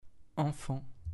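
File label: "enfant", French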